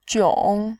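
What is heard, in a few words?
"jiong", Mandarin, third tone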